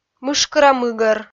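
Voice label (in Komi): "мышкыра мыгӧр", Komi, female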